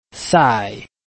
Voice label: "sai4", Chinese